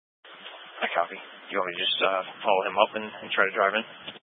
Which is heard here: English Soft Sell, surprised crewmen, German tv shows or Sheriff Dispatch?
Sheriff Dispatch